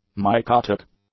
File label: "mycotic", English; adjectives